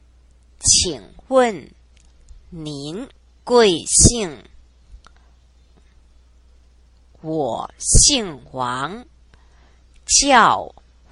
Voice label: slow, download sound file of the above vocabulary list; Situational dialogue one